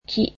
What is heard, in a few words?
"tree", japanese